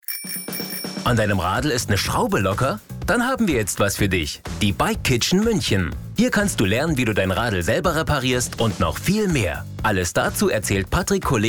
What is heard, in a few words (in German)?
Infomercial; Bikekitchen München